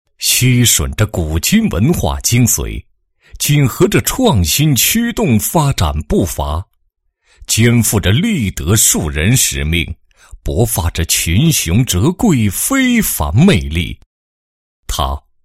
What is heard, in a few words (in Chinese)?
男 学校专题, 初级中学宣传介绍; 魅力六中